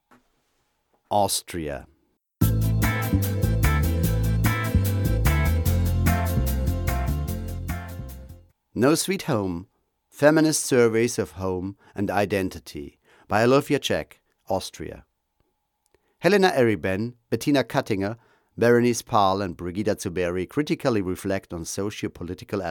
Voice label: audioguide; art on the stream; austria; kunst am strom; danube cultural cluster